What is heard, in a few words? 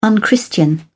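"unchristian", British English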